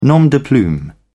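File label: "nom de plume", British English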